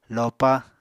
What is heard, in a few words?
"lopa", Apatani; Household Items